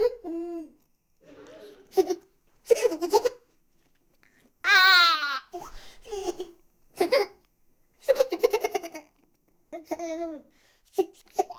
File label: a 24 year old young, brotherly love